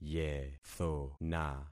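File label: "yethona", concatenated syllables; Affixing experiment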